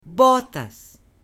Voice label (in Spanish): "Botas", M; ROPA; AppLenguaje